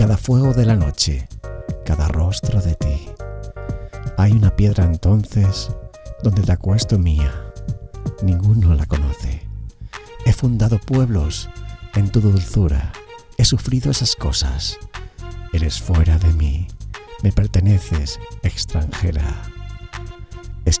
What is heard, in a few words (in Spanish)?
poema romantico; gelman; escribo olvido